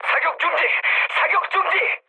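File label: Korean player friendly fire mood med; War Thunder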